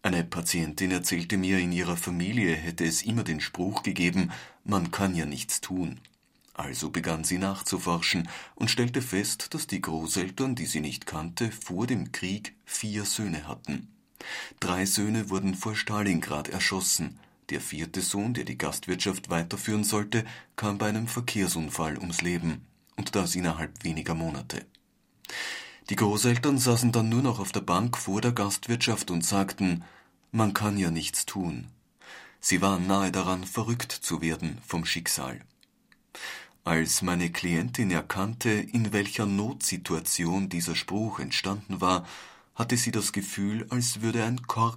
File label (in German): Hörbuch Familienforschung